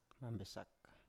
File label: "mambesak", Biak